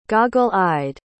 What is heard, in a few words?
"goggle-eyed", pronounce